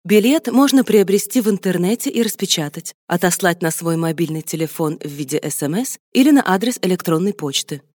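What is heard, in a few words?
Russian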